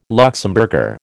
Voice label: "Luxemburger", American